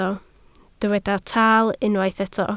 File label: "taal", Welsh